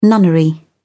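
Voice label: "nunnery", English, British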